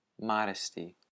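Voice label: "modesty", US English; pronunciations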